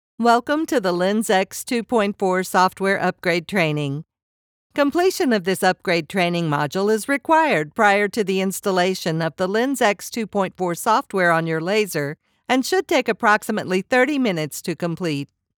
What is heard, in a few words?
Warm · Informative · Professional; Hello! It's nice to meet you.